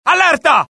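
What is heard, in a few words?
alert, Italian; mohaa; dialogue; sighted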